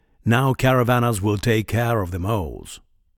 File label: Voice Monolog; we are the dwarves gamerip 2016